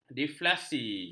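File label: "deflasi", Malay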